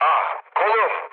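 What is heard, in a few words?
Turkish damaged pilot, mood low, speech; War Thunder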